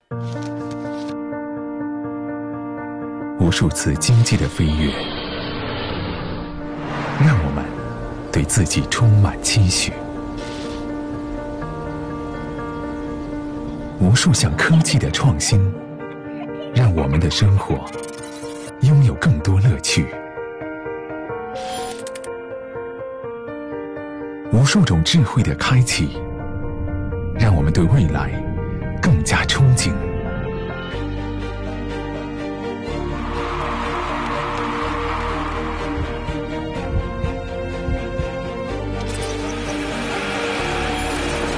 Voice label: 国语男 形象片 政府 宣传片; CCTV国家品牌计划《心系国家战略工程》总宣传片

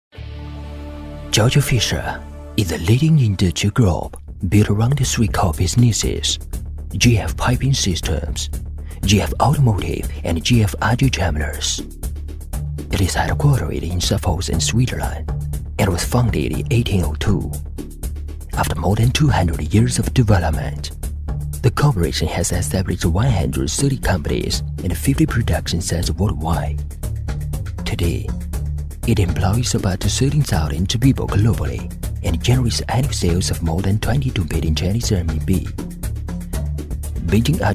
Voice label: 英语男, 宣传片; 北京Agie Charmilles工业电子有限公司

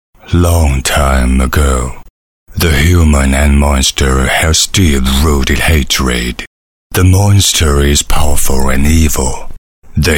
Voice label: 英语男 旁白 神秘沧桑; 《狩猎幻想》

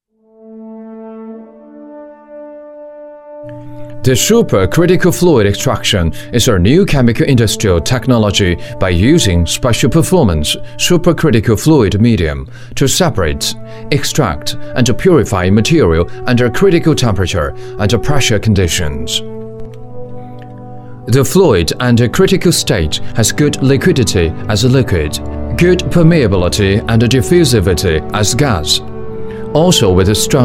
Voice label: 英语男; 乌江机电

